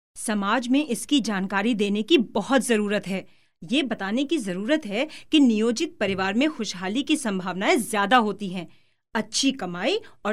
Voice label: Hindi Female